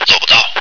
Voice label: negative, radio